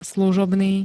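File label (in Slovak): "služobný", Slovak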